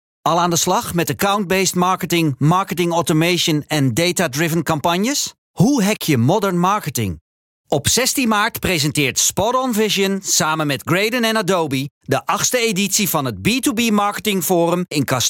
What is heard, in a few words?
COMMERCIAL; Spotonvisions